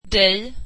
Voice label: "you" Swedish

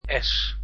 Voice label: "s", Dutch alphabet

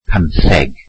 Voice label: welsh fifteen

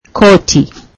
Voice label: swahili coat

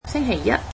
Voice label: Monday, cantonese

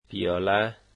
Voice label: "cup", Dari

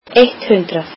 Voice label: icelandic one hundred

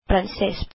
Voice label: "French", Filipino

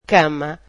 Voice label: "bed", Portuguese

